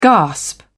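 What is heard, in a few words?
"gasp", British English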